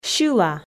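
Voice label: "сьыла", Komi, female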